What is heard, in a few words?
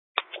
Police Department Dispatch, scanner